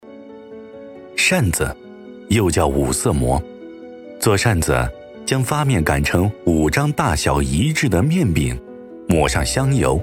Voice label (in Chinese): 男 纪录片 舌尖美食; 民勤县传统美食扇子